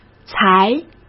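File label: "才", Mandarin Chinese; hsk; chinese-flashcards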